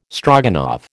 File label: "Stroganoff", American